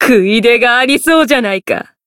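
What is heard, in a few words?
encount fear, Japanese; minalca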